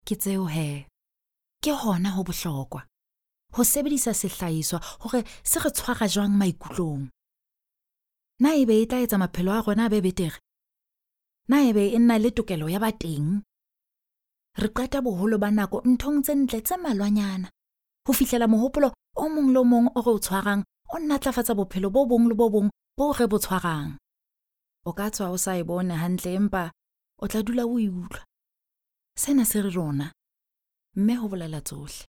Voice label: Sotho Soft Sell